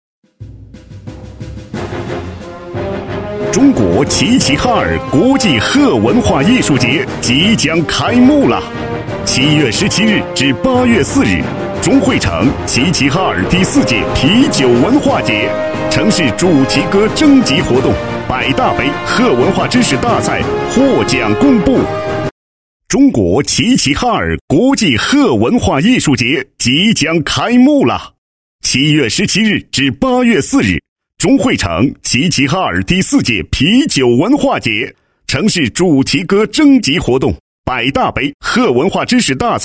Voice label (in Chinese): 男 宣传广告, 国语男声配音, 浑厚; 啤酒节文化节